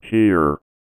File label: VOICEFX ELCTR 140; Techno2